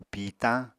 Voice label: "pìitañ", Apatani

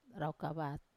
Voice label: "rau kavat" larynx, Wamesa

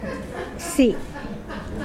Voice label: "bone", Mokilese

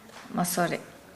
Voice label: Nukuoro; scratched

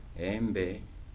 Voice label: "mango", Kibembe

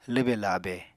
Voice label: "lwbe-labe", Apatani

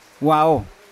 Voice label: "wao" leaf, Yawa

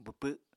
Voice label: "bwpw", Apatani

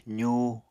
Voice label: "zuu", Apatani

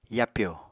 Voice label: "yapyo", Apatani

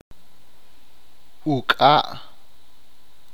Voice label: cuerno, achi

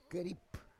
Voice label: "kerip", Roon

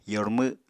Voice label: "yormw", Apatani

